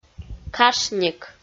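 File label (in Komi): "кашник", Komi, female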